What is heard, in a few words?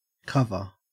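"cover", Australian English; pronunciations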